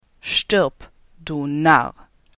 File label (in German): Narr